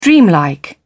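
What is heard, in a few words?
"dreamlike", British English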